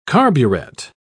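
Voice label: "carburet", British English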